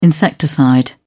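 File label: "insecticide", British English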